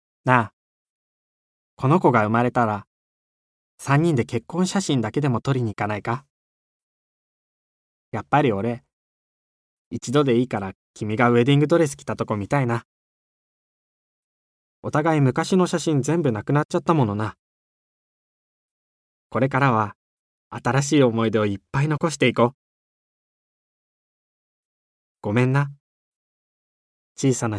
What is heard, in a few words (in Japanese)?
若い夫婦